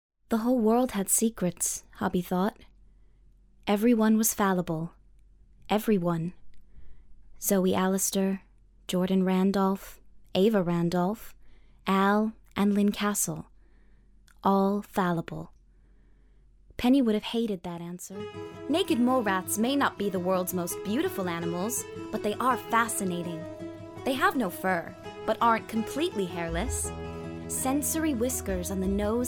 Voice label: narrative